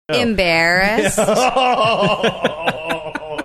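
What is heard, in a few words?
embarrassed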